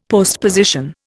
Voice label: "postposition", Indian; Dictionary

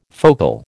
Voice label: "focal", American

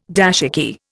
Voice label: "dashiki", Indian

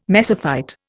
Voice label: "mesophyte", Indian

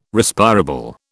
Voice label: "Respirable", British

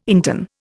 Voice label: "interne", Indian

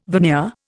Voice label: "veneer", Indian

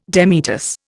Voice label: "demitasse", Indian

